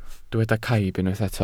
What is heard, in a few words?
"caib", Welsh